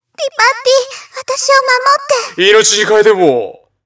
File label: voice goth